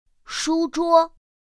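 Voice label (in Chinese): shūzhuō, Mandarin Chinese; pinyin2; pinyinji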